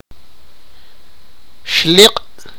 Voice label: sipakapense; donkey